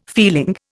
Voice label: "feeling", Indian